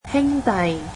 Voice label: "brother", Cantonese